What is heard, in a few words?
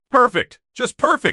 happy, speech; fertig classic games